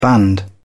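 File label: "band", British English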